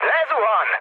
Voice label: aircraft destroyed, speech hun; War Thunder